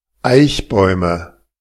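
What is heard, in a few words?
"Eichbäume", German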